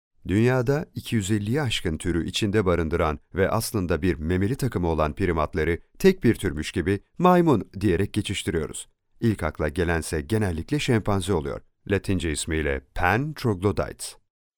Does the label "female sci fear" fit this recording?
No